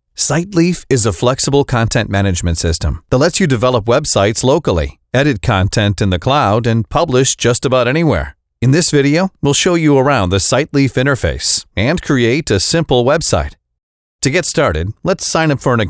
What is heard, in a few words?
web app tutorial video; siteleaf